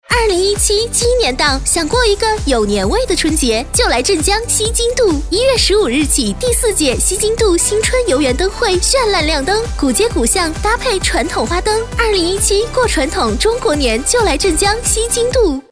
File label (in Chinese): 女 广告 花灯节, 国语女声配音; 多风格录音